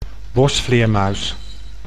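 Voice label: "bosvleermuis", Dutch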